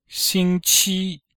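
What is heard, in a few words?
"xing1qi1", Chinese; Cours de Chinois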